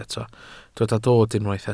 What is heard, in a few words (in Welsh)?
"dod", Welsh